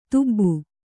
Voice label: "ದುಬ್ಬ", Kannada